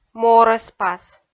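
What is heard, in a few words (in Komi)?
"морӧспас", Komi, female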